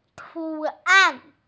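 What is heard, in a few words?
"tu2an4", Chinese, F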